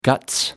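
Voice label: "guts", British English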